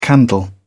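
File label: "candle", British English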